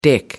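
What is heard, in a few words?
"dick", British English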